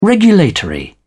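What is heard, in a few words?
"regulatory", British English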